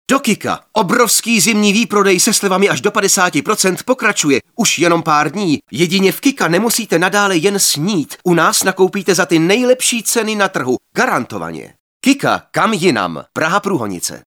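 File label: Male Czech commercial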